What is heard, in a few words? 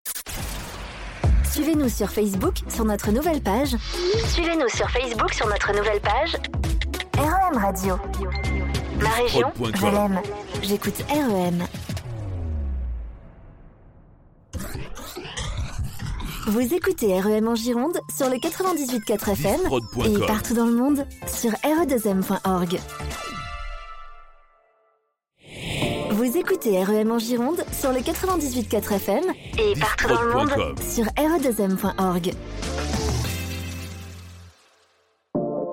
Jingles x4